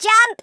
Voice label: jump, Patronising speech